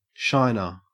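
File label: "shiner", Australian English; pronunciations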